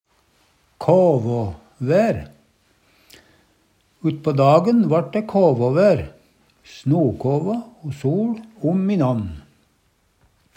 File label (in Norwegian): kavavaer, Numedalsmål; Substantiv inkjekjønn; Vêr og føre